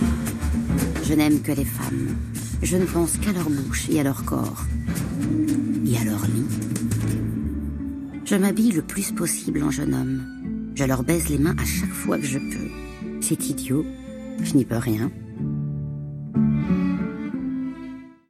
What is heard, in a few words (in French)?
voix grave documentaire; Paris romantique